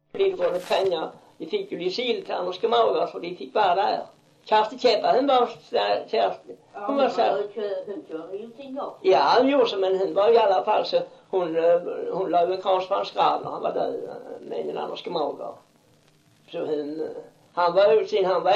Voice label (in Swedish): dialekter; Folkminnen; Svenska sagor